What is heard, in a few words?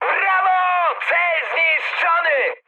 bomb success mood high, speech pol; War Thunder